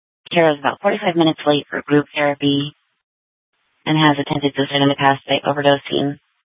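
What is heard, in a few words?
Police Department North Dispatch, scanner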